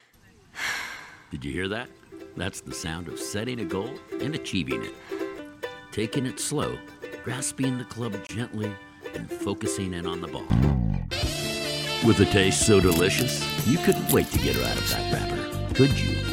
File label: Commercial, Male voices